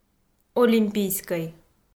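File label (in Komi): "олимпийскӧй", Komi, female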